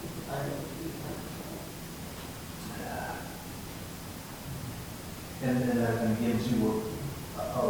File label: whisper; shelton hotel